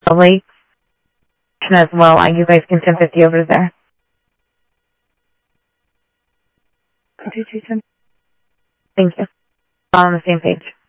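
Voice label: Sheriff East County, scanner audio trunk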